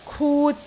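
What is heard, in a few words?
"kut3", Cantonese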